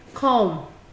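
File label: "come", Nigerian Pidgin, female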